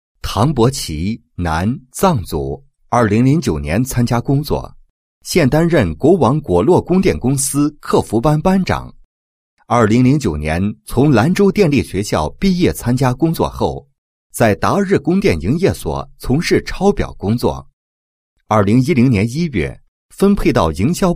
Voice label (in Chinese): 男 人物 介绍客服班班长 供电公司优秀员工